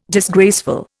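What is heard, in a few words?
"disgraceful", Indian English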